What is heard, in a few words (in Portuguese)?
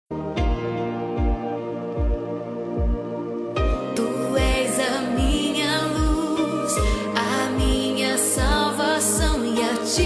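birthday COMADRE female, telemensagem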